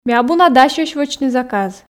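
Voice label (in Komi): ми абу на дасьӧсь вӧчны заказ, Komi, female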